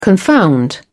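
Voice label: "confound", British English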